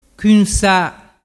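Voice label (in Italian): "cunsa", Vernazzese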